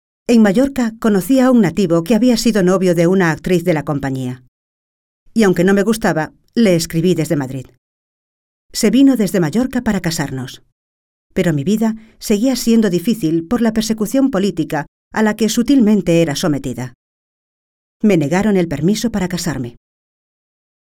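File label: Spanish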